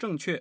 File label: male